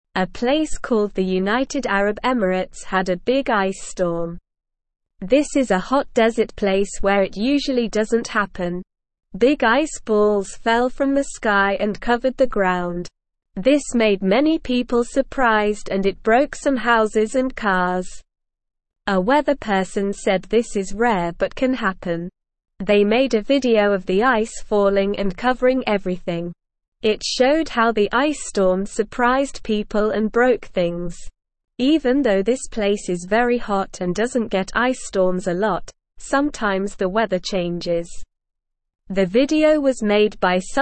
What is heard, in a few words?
English Beginner SLOW Reading; Ice Storm in United Arab Emirates A Surprising Weather Event